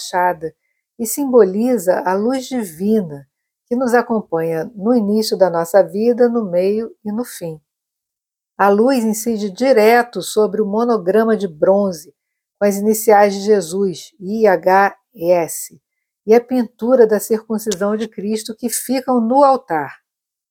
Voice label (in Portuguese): Audioguia Gesu Igreja